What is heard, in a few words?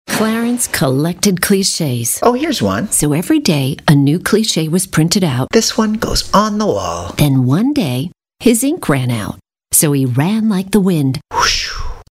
Office Equipment Manufacturer attitude cool executive smooth technical